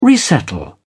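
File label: "resettle", British English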